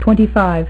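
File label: "twenty-five", female; MacCribbage; InterStellar MacCribbage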